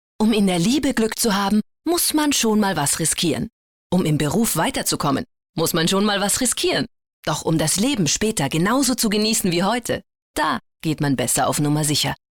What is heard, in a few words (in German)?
Frau OFF